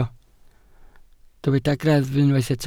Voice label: "greddf", Welsh